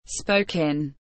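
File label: "Spoken", English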